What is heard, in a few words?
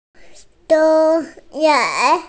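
"park", hearing impaired; gong1yuan2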